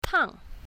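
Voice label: "tang4", pinyin, south, female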